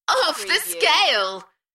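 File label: British female Off the scale Enthusiastic; beanstalk audio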